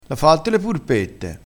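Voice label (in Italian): "purpetta", vocabolario dialettale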